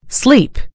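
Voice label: "sleep", American English; gcompris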